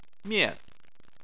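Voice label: "mian", Chinese, fourth tone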